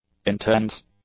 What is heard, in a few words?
"intense", English; adjectives